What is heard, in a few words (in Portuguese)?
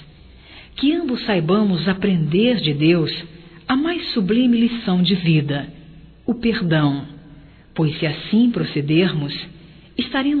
Reconciliação Amizade Para Ele Feminino